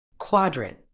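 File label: "quadrant", medical English, British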